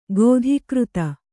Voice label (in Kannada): "ಖಾತೆಕಿರ್ದಿ", Kannada